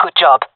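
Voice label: compliment, female; vo; agents; jungle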